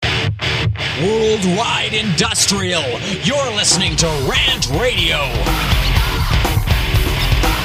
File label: Promo radio id worldwide industrial; RantRadio; Station Promos-In House